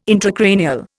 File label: "intracranial", Indian